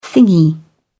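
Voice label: "thingy", British English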